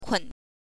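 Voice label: "kun", Mandarin Chinese pinyin, neutral tone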